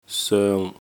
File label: "soeng5", Cantonese